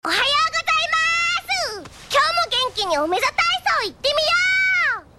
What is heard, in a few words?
ohayo japanese